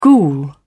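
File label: "ghoul", British English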